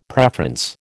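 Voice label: "preference", American English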